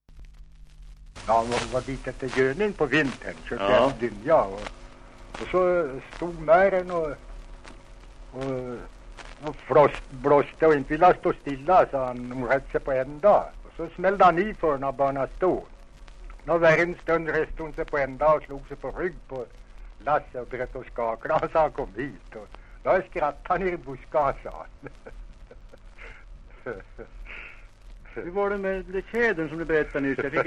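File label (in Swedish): Österfärnebo Gästrikland, dialekter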